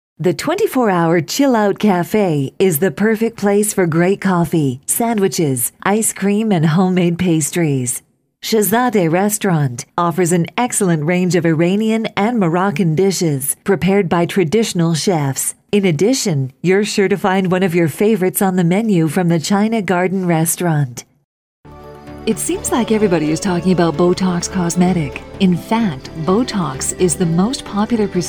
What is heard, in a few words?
onhold; usa